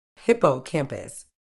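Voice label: "hippocampus", British English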